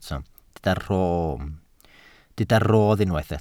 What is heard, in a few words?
"rodd", Welsh